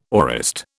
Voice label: "aorist", British

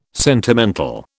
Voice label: "sentimental", British

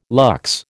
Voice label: "lux", American

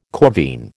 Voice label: "corvine", American

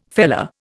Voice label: "feller", Indian

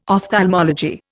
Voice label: "ophthalmology", Indian

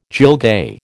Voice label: "gilgai", American

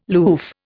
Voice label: "loof", Indian

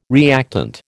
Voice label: "reactant", American

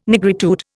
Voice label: "nigritude", Indian

